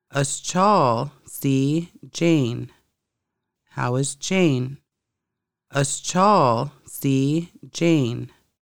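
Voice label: how is jane, conversational Lushootseed; Conversational Lushootseed: Lessons 1-5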